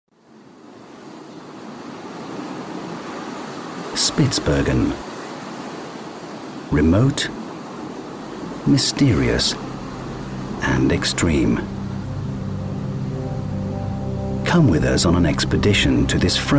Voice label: British Voiceover, Documentary; SPITSBERGEN